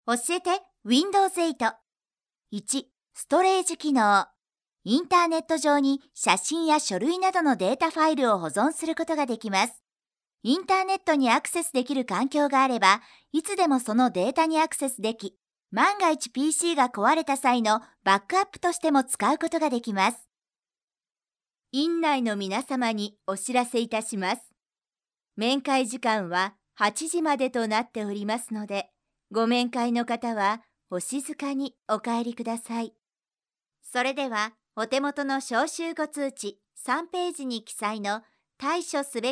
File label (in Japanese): ナレーション